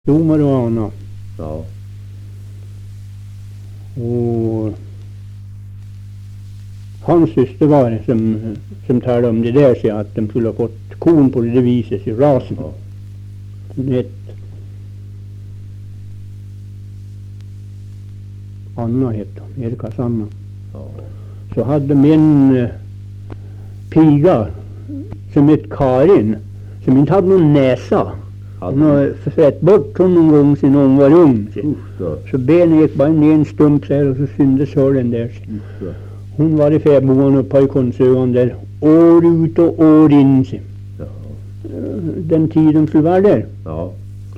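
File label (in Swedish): Österfärnebo Gästrikland, dialekter, svenska sagor; Folkminnen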